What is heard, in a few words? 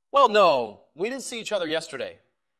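VoiceActing es M2, voice acting, Spanish, male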